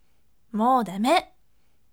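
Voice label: "moudame", firm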